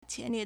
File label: "qian2 lie4", Chinese